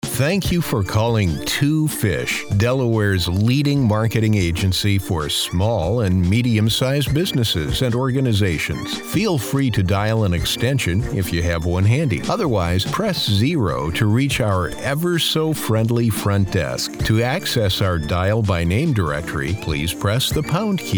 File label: On Hold IVR